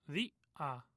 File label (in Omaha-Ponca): "thia", Omaha language